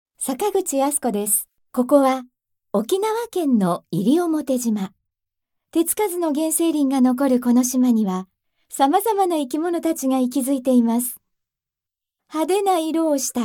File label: female city-tour